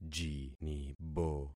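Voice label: "jinibo", concatenated syllables; Affixing experiment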